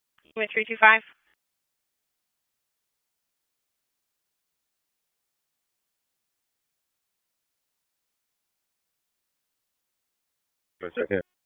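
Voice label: Police Northeast District Disp, radio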